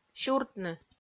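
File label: "сюртны" Komi, female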